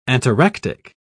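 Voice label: "ataractic", British English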